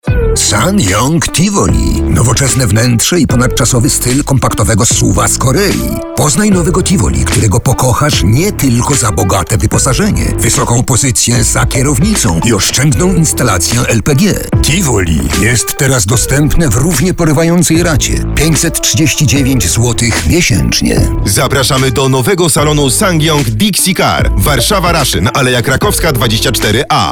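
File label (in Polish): reklama kredyt, advert; ssangyong; tivoli kredyt; SsangYong KGM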